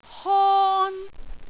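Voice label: "hon1", Cantonese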